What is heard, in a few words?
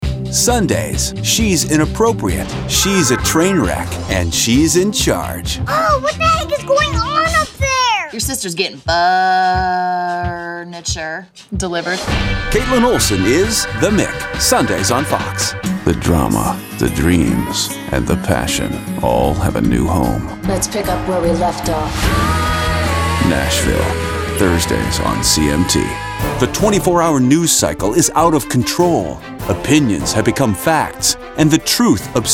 promo